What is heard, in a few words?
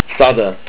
hundred, estonian